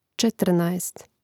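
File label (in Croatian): "četrnaest", Hrvatski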